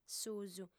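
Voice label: "susu", lingua sarda, female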